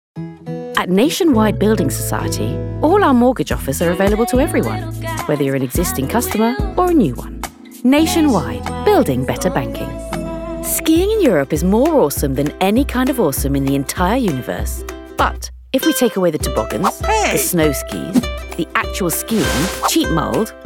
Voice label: female english and french english commercial compilation